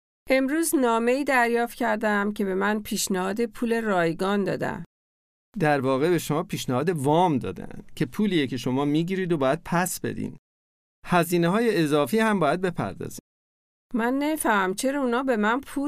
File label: credit farsi